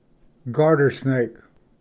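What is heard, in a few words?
"garter snake", English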